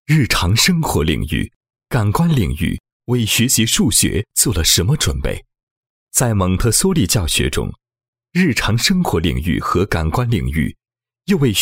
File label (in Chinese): 男, 教育专题; 蒙特梭利教育宣传